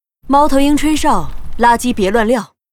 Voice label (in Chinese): Huntress pissed